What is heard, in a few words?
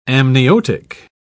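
"amniotic", English, British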